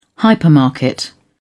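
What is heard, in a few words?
"hypermarket", British English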